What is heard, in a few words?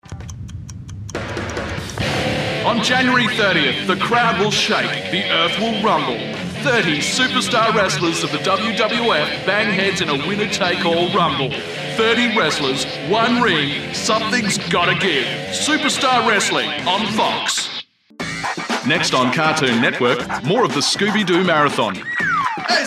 hard sell